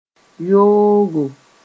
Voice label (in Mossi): "yoogo", moore; bad